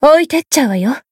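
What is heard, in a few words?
heal, Japanese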